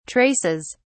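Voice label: "traces", British English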